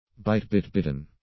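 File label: "bite bit bitten", English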